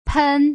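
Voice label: "pen", Mandarin Chinese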